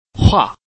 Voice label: "hua4", Chinese